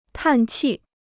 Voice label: "叹气", Chinese

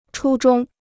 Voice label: "初衷", Chinese

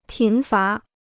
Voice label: "贫乏", Chinese

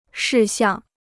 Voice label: "事项", Chinese

